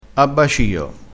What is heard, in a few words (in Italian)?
"a bacio", Crespinese